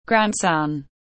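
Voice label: "Grand son", English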